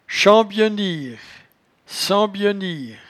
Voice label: "chambyeniewre", patois jura

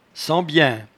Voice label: "sembiaint", patois jura

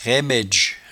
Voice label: "raimaidge", patois jura

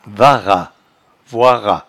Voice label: "varrat", patois jura

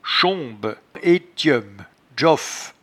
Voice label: "schombe", patois jura

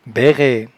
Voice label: "bairraie", patois jura

